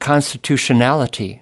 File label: "constitutionality", us